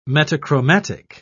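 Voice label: "metachromatic", English, British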